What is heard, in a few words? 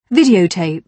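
"videotape", British English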